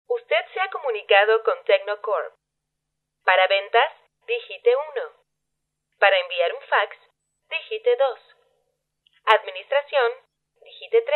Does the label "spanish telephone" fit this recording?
Yes